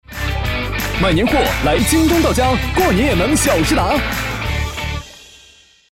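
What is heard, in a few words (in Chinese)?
广告 男 欢快; 京东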